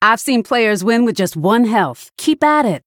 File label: Female Human Behind